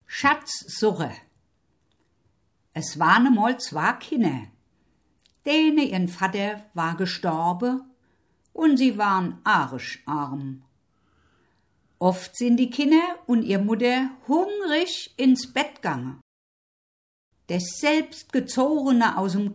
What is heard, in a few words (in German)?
Schatzsucher Rheingauer